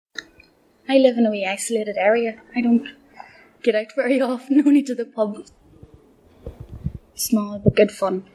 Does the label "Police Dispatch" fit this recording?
No